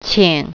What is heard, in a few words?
"please", Mandarin